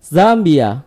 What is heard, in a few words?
"zambia", id; gcompris-data; geography